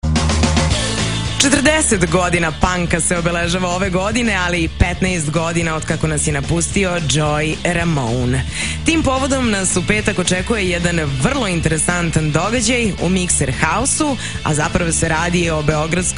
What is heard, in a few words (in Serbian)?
Rock radio najava